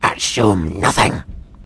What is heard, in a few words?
answer, vort speech; hl2